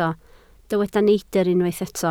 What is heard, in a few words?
"neidr", Welsh